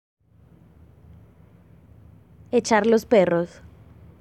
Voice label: Medellin Slang Expression